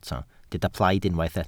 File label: "plaid", Welsh